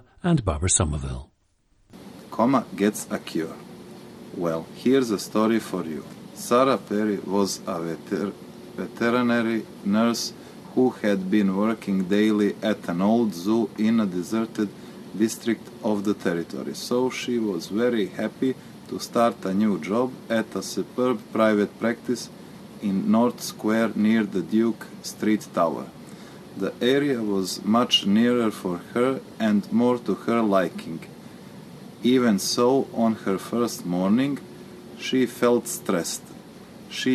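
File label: montenegro, dialects of English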